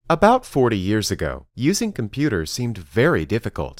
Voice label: Dictation